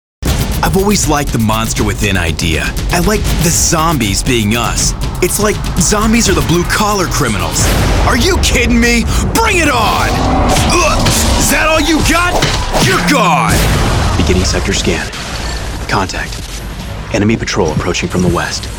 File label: animation video game